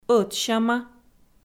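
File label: "ӧтсяма", Komi, female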